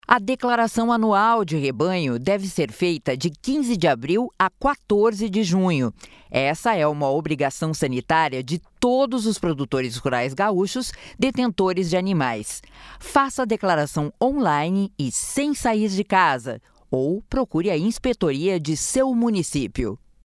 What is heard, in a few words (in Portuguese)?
spot radio; declaracao rebanho 2024